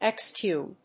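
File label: "exhume", English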